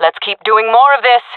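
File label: round winning, female voice; player vo; Counter Strike Model Pack; CS:GO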